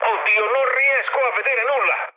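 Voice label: Italian searchlite mood med, speech; War Thunder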